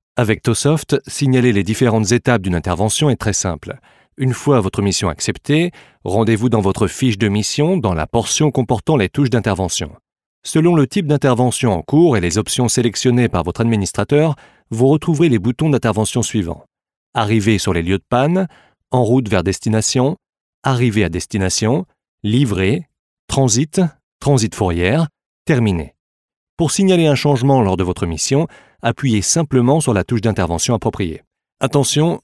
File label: e-learning; French; towsoft